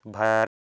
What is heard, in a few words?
"bhar", Sanskrit